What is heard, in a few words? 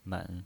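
"men", pinyin voice, Mandarin Chinese, third tone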